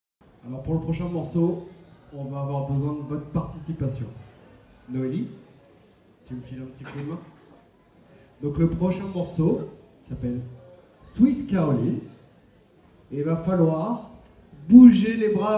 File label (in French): presentation